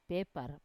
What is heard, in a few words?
"pepar", gorge, Wamesa